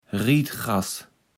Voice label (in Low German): "Rietgras", Platt